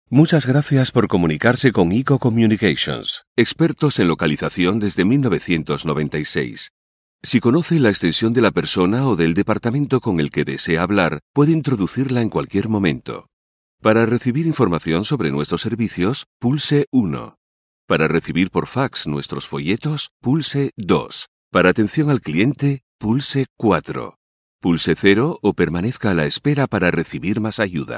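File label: Spanish phone message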